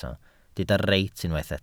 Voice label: "reit", Welsh